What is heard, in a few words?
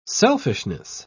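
"selfishness", British English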